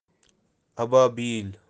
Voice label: "ababeel" swallow, kashmiri

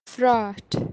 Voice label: "phraath" crack fissure kashmiri

